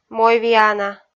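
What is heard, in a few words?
"мойвиана" Komi, female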